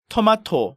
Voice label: "Tomato", Korean